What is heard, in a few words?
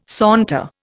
"saunter", Indian